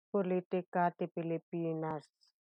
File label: Ilocano